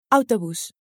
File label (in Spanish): "autobus", Spanish